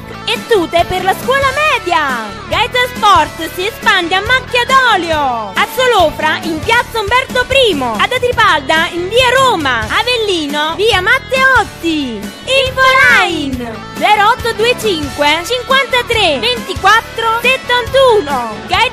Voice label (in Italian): sprintosa donna singola; voci